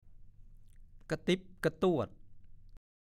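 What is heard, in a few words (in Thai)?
"กะติ๊ก" ภาษาโคราช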